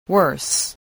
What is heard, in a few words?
"worse", spelling